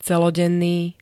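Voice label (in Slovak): "celodenný", Slovak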